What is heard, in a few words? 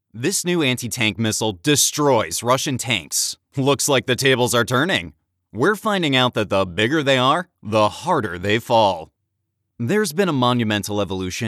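Military Tank Narration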